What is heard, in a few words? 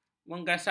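"menggasak", Malay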